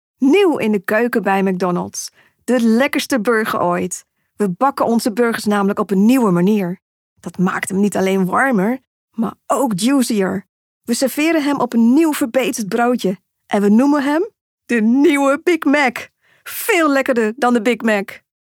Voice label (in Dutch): warm; Mac Donald